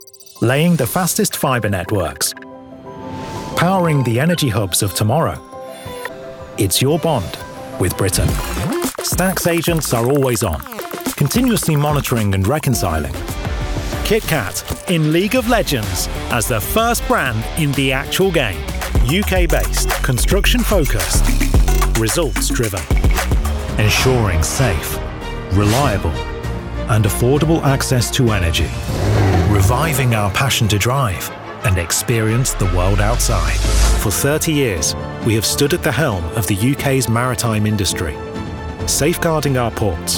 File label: Voice Corporate